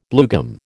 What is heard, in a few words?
"bluegum", American